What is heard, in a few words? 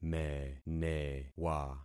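"menewa", concatenated syllables; Affixing experiment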